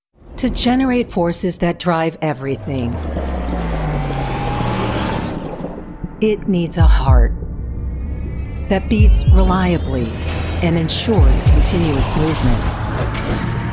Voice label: Corporate Industrial